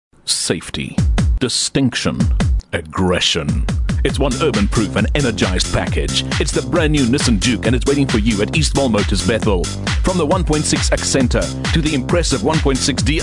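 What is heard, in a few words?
English South African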